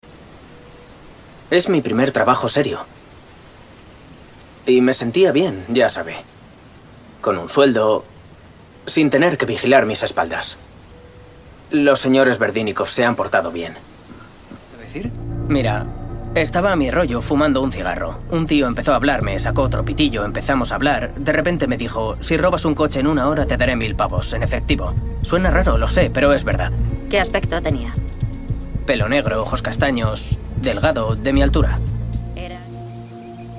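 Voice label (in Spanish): doblaje España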